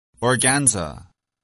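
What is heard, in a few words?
"organza", English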